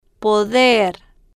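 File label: "poder", Spanish, female, slow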